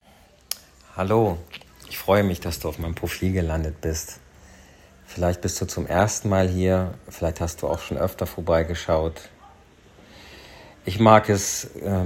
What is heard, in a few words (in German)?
Escort Mann Audiovorstellung deutsch